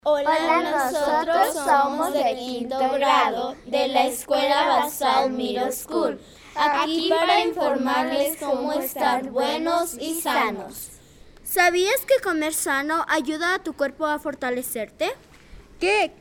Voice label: spanish radio